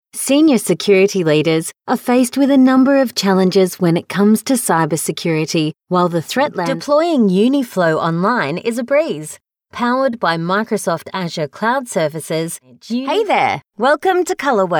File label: Narration